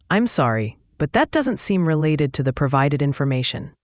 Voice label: question english